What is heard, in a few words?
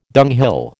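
"dunghill", American English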